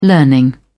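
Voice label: "learning", English, British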